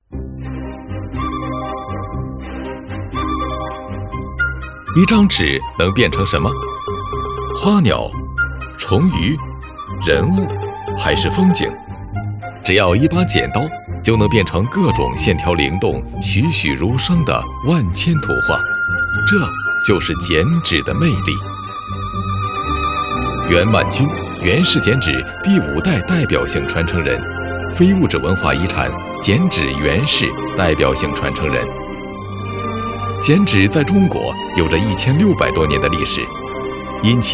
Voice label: 男 非遗宣传片 积极 年轻 讲述

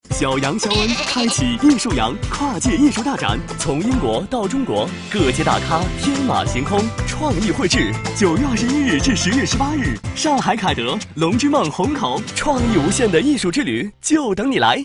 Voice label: 男, 艺术展, 天真活泼; 小羊肖恩

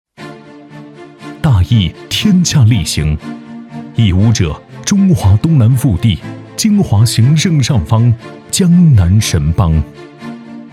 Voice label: 男 城市宣传片; 义乌赋; 义乌自然与历史文化

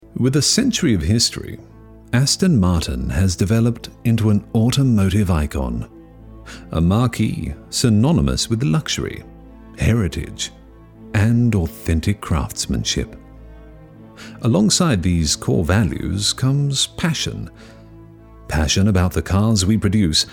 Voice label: 外籍英男 纪录片风格

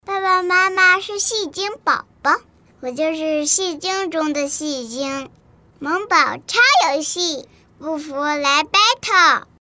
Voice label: 女 真男童 萌宝

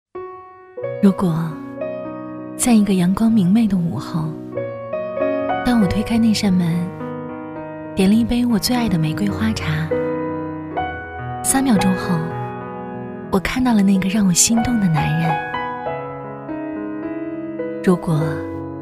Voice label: 女, 旁白; 微电影

